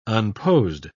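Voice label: "unposed", British English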